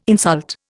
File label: "insult", Indian